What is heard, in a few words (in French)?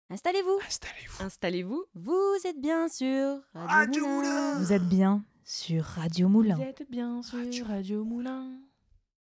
jingle installez vous